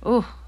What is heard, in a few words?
"ooh" female